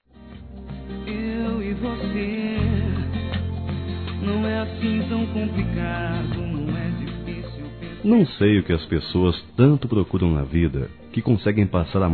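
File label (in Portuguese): romantico suave, Telemensagens, Românticas masculino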